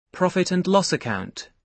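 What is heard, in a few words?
"profit and loss", British English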